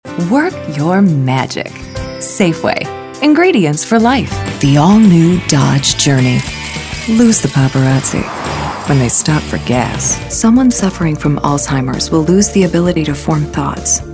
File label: Commercial Voiceover